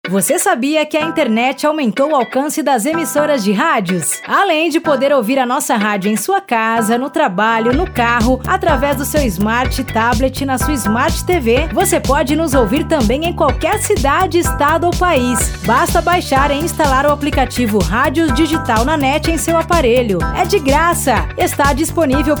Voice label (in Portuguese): Spot Voz Feminino, radio; Radios Digital Na Net